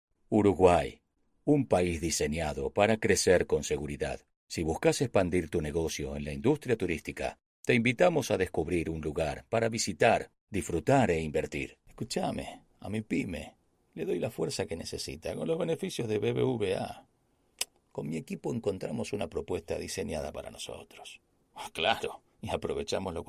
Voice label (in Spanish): español argentina